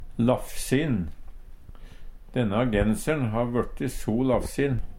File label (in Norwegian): "lafsin", Numedalsmål; Dene genser`n har vørte so lafsin.; Adjektiv